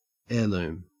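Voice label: "heirloom", Australian English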